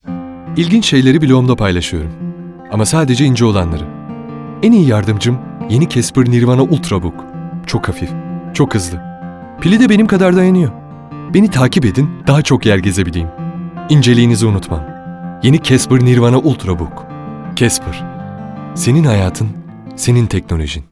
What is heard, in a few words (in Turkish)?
sicak samimi